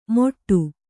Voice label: "ಮೊಟ್ಟು", Kannada